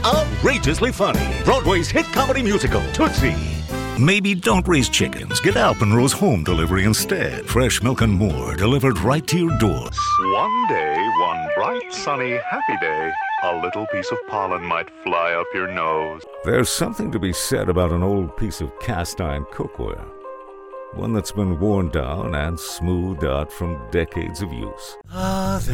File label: American